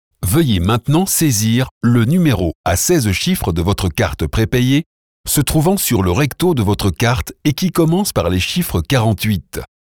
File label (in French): Voix francophone